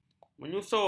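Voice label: "menyusur", Malay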